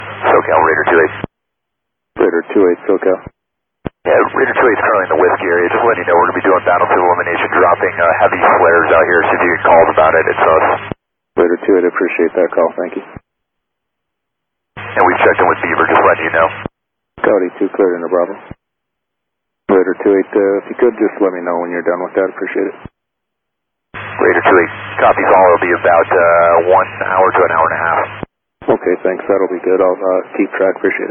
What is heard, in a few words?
ATC Traffic